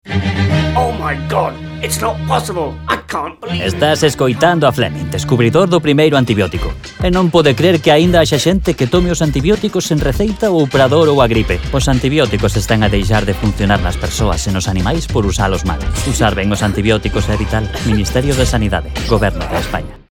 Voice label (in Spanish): CUÑA Usar bien los antibióticos Gallego; cuña de radio